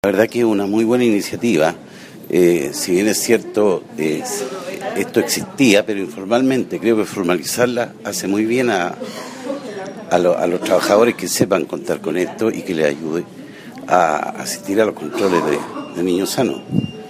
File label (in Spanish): Cuña; Sodimac Belloto